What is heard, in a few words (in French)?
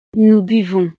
"nous buvons", frances